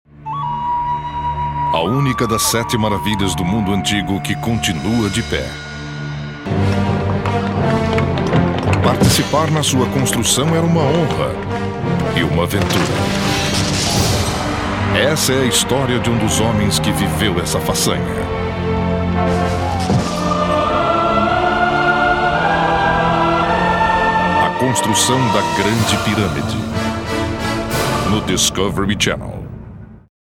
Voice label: br promo